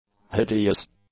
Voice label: "hideous", English; adjectives

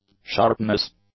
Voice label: "sharpness", English; nouns

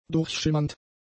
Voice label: "durchschimmernd", german; adjectives

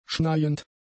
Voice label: "schneiend", German; adjectives

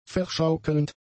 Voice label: "verschaukelnd", German; adjectives

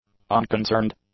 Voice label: "unconcerned", English; adjectives

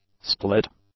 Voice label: "split", english; adjectives

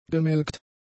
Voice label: "gemelkt", German; adjectives

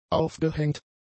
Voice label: "aufgehängt", German; adjectives

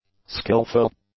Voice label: "skilfull", English; adjectives

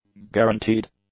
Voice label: "guaranteed", english; adjectives

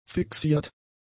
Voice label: "fixiert", German; adjectives

